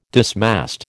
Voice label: "dismast", American